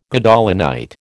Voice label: "Gadolinite", American